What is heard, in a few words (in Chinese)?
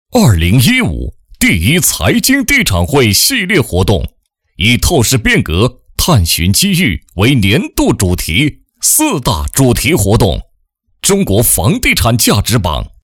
男 房地产; 第一财经地产汇系列活动